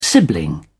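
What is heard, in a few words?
"sibling", British English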